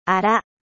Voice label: "ara", japanese